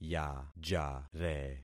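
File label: "yajare", concatenated syllables; Affixing experiment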